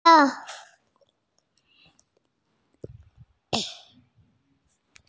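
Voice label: "yu2wang3" Mandarin Chinese, female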